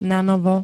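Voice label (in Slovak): "nanovo", Slovenské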